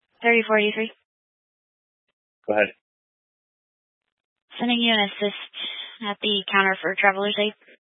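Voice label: Police Dispatch, Scanner audio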